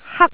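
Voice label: "hak1", Cantonese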